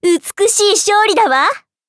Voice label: victory, ja